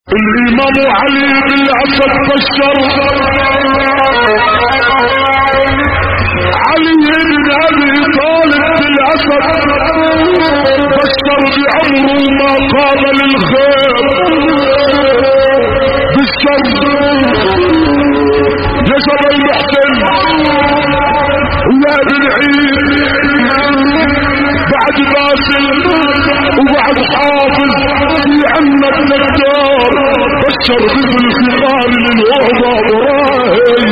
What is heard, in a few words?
low pitch